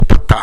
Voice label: "patta", minamiyamagata

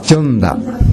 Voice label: "jonda", minamiyamagata

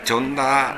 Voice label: "jonda", minamiyamagata

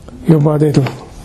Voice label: "yobareru", minamiyamagata

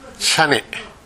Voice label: "shane", minamiyamagata

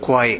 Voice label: "kowae", minamiyamagata; 疲れる。体調不良。疲れて苦しい。

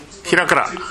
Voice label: "herakara", minamiyamagata

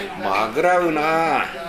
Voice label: "magurau", minamiyamagata

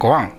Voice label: "gowan", minamiyamagata

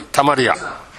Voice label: "tamariya", minamiyamagata

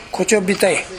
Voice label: "kochobitae", minamiyamagata

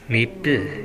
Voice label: "neppi", minamiyamagata

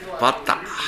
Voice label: "patta", minamiyamagata

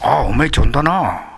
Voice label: "jonda", minamiyamagata

